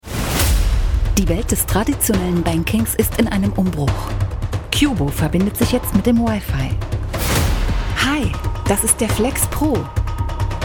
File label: german e-learning